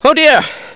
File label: get down, hostage reactions; czero opforce